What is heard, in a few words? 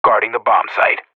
radio bot guarding, professional; player vo